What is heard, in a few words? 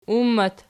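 "ummat" nominative, Archi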